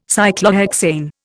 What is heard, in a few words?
"Cyclohexane", Indian